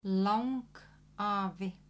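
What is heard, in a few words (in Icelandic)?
"langafi", Icelandic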